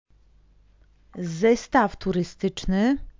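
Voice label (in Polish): "zestaw turystyczny", Polish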